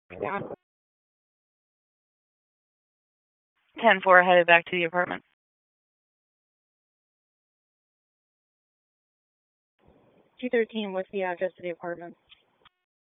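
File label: Police District Dispatch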